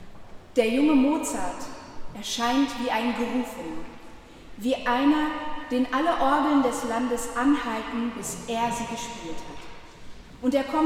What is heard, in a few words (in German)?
Rezitation; Der junge Mozart